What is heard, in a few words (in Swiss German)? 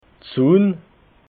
"sunn", alsace